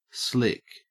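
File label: "slick", Australian English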